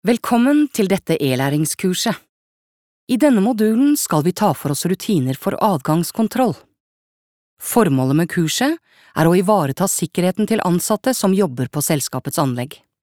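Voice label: Norwegian elearning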